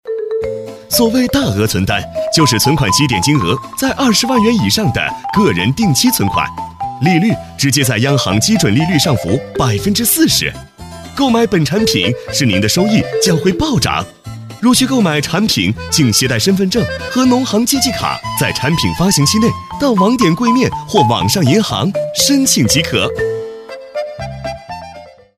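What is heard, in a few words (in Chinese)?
男【MG飞碟】诙谐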